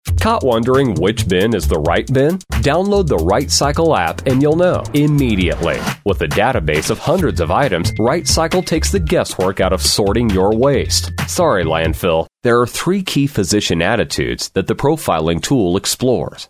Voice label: E-Learning, en-US